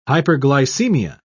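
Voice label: "hyperglycemia", British English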